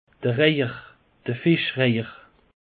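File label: "reiher", Alsatian; peche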